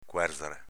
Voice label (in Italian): "cuerzare", dialetto veneto; cuèrzare v. coprire